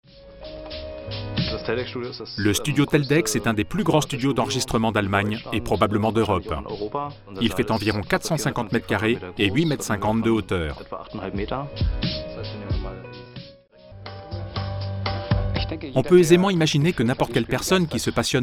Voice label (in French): voix off; casio